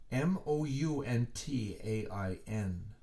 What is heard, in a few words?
Mountain, letters being spelled out